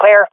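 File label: sees area clear, swat female; vo; Counter Strike Model Pack; CS:GO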